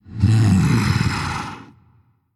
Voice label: Male Human Thinking; Hero